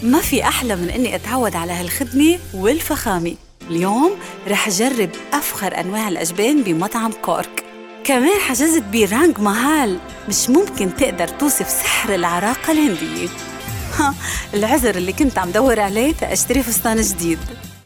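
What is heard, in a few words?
Arabic Lebanese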